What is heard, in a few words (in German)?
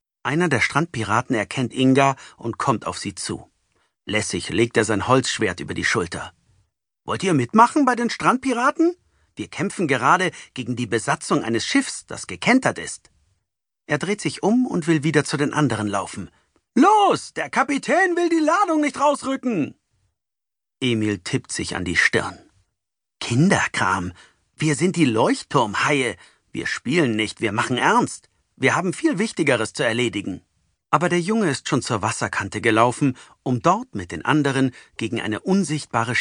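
Hörbuch, Sprecher, Männer; Leutturmhaie; Die Strandpiraten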